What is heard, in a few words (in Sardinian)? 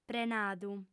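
"renadu", Sardinian, female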